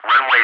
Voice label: "runway", ENG, ATC; DCS